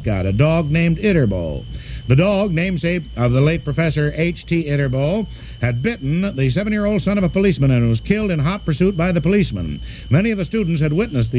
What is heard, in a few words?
Actual local Northfield radio news segment; Ytterboe the Dogs death